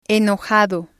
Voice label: "enojado", Spanish